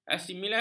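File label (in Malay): "asimilasi", Malay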